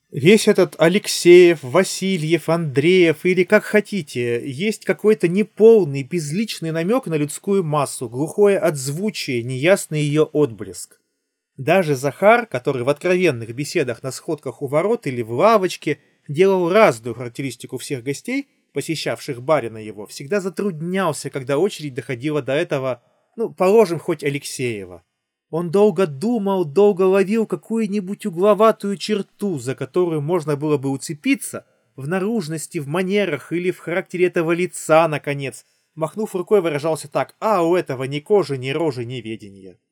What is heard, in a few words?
bt recorder; qcy h4